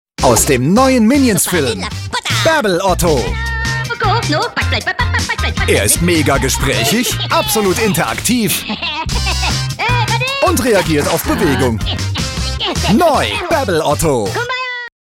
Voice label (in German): werbung; minions babbelotto